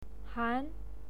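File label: "han", Mandarin Chinese pinyin, second tone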